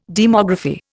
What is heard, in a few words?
"demography", Indian